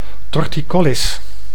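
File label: "torticollis", Dutch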